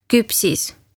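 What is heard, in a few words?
"Kupsis" Estonian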